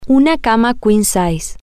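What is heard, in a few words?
queen bed, Spanish, female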